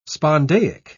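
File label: "spondaic", British English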